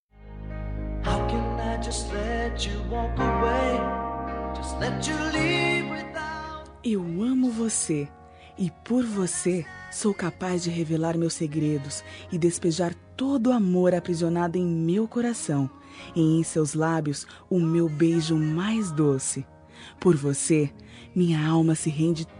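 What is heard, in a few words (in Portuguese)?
picante feminina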